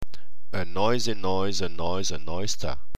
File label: noise, tongue twisters